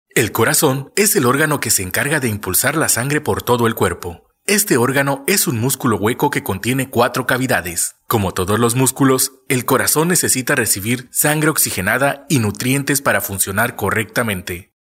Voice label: locutor mexicano mexican voiceover